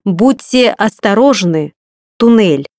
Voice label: Tunnel, voice female; RU; MioMap C220; iGO831